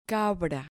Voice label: "cabra", Spanish